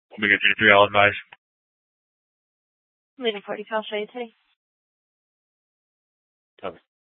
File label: Mesa PD Central Dispatch, scanner